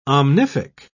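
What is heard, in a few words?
"omnific", English, British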